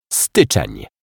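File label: "Styczen", Polish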